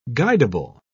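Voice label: "guidable", British English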